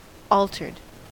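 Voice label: "altered", US English; pronunciations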